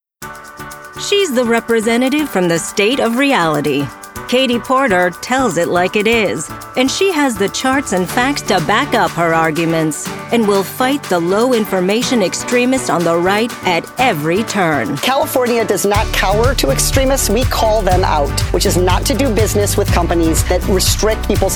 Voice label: Political CANDIDATE POSITIVE; Political Voiceover (Democratic)